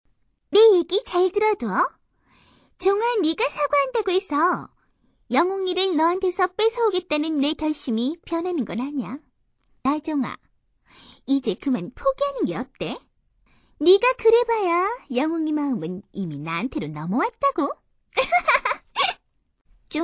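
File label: Korean, female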